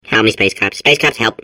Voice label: babble; portal2